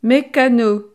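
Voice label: "meccano", French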